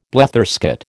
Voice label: "bletherskate", American

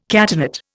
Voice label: "catenate", Indian

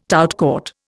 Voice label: "tout court", Indian

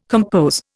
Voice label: "compose", Indian

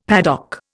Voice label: "paddock", Indian; Dictionary

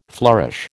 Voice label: "flourish", American

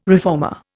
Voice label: "reformer", Indian

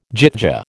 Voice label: "gyttja", American

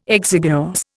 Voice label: "exiguous", Indian

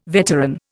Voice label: "veteran", Indian

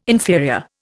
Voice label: "inferior", Indian

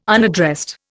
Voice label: "unaddressed", Indian

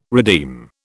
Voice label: "redeem", British

